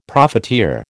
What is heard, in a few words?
"profiteer", American